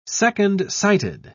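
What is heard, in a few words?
"second-sighted", British English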